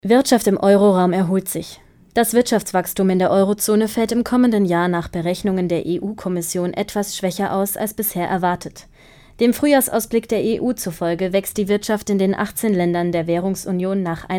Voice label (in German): Nachrichten